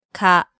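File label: "ka", Japanese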